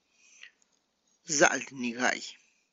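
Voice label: add ingredient to make infusion, Tlacochahuaya Zapotec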